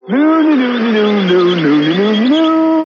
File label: noony noony noo, Voice gibbrish